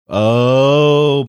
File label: drawn out ohh